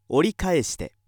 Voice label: male